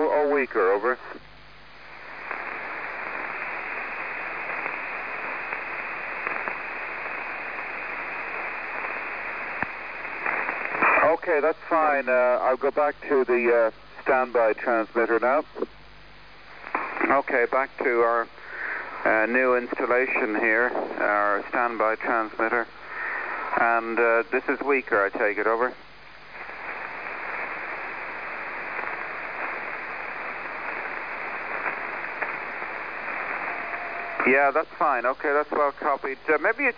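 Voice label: 1677kHz Radio tests with unknown user, Marine Audio HF; 1677kHz Malin Head R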